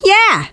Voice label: scout yes, fem scout